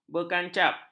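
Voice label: "berkancap", Malay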